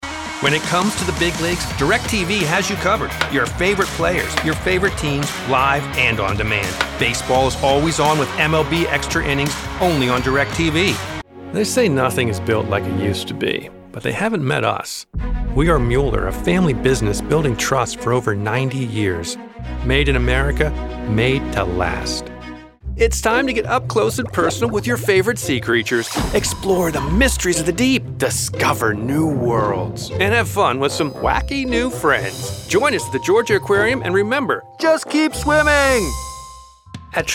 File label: Commercial